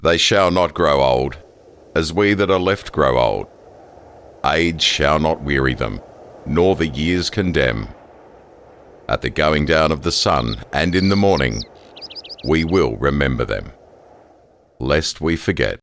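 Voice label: English Australian, narration; GoLocalise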